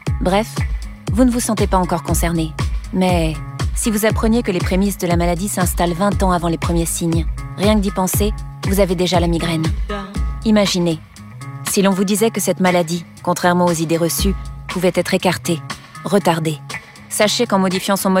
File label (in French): PUB FR Alzheimer